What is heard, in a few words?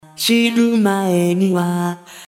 Vocaloid